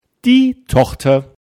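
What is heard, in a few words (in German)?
"Tochter", German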